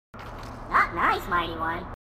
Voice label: grunt; h2dialogue; grunt crazy